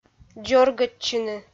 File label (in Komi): "дзоргӧдчыны", Komi